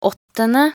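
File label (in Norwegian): "åttende", Norwegian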